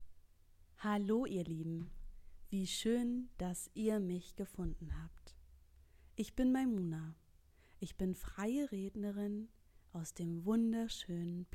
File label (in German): Audioaufnahme Freierednerin